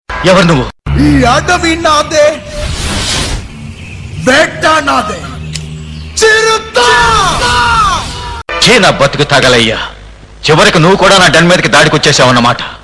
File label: Telugu Funny